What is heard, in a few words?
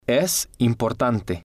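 "es Importante", Spanish, male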